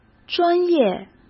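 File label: "专业", Mandarin Chinese; chinese-flashcards; hsk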